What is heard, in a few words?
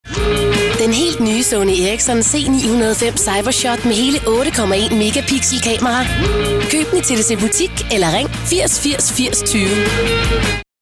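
dk com fresh, Danish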